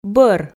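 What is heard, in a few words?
"бӧр", Komi, female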